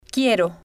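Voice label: "quiero", Spanish, female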